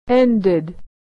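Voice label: "ended", American English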